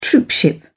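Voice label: "troopship", British English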